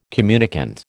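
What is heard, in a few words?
"communicant", American English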